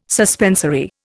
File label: "suspensory", Indian